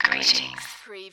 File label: Robot voice, greetings; lynne publishing